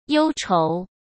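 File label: "忧愁", Mandarin Chinese; hsk; chinese flashcards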